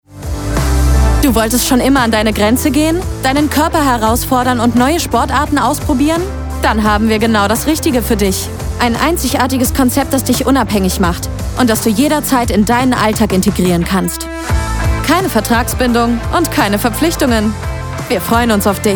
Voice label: Werbung

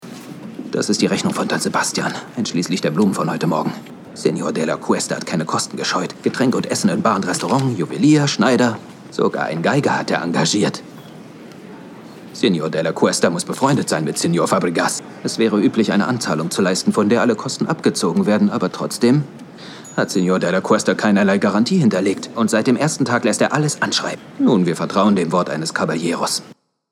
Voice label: synchron; Serie High Seas - Netflix

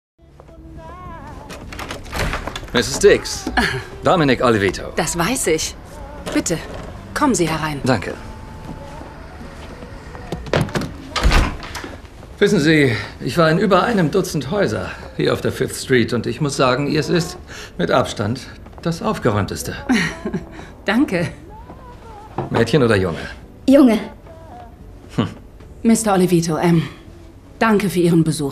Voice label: DE Synchron arrogant; Olivito

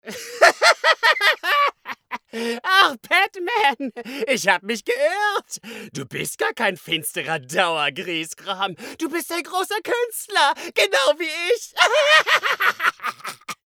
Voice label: Charge Comic

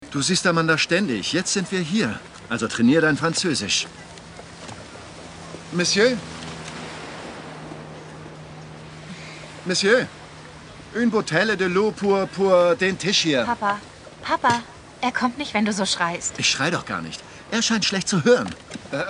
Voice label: Synchron Franzoesisch lustig; Quicksand

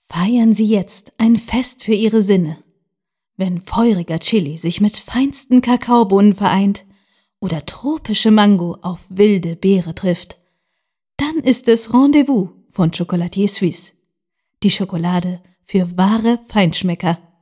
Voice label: Werbung Schokolade

